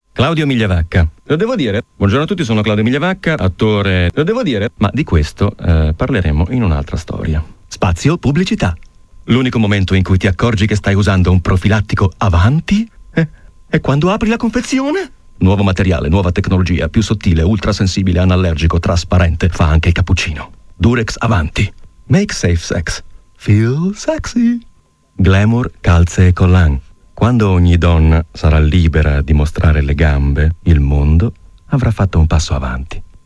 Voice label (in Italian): spot; radio